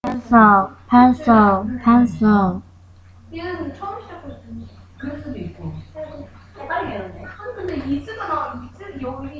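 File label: "pencil", spoken word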